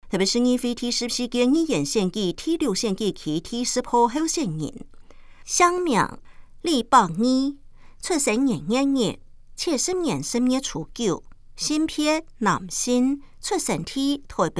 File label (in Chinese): 客語; 臺北市; 有聲公報; 市議員; 臺北市議會第14屆議員第6選舉區 (大安、文山區)候選人介紹